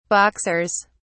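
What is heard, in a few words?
"boxers", English, British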